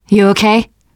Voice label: revive friend, teengirl

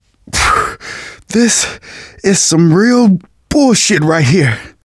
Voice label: going to die light, manager, survivor, voice; Left 4 Dead 2; left4dead2 dlc2

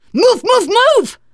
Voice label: emphatic go, teen girl

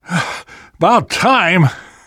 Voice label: safe spot ahead reaction, namvet, survivor voice; Left 4 Dead 2; left4dead2 dlc3

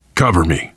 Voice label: cover me, voice, biker

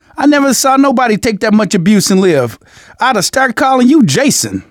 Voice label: revive friend, survivor voice manager; Left 4 Dead 2; left4dead2 dlc2

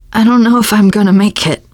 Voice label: going to die, teengirl, voice

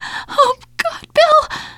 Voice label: grief, teen girl

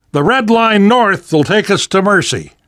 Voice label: world hospital, namvet, survivor voice; Left 4 Dead 2; left4dead2 dlc3

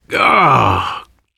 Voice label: getting revived, voice, biker; Left 4 Dead 2; left4dead2 dlc3; survivor

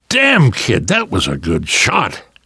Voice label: nice shot, namvet, survivor voice; Left 4 Dead 2; left4dead2 dlc2

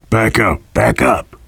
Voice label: backup quiet, survivor voice biker; Left 4 Dead 2

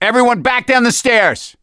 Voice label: misc directional, voice; gambler

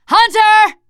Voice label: warn hunter, teen girl; player; survivor; voice

